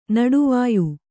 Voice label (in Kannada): "ನಡುವಾಯಿ", Kannada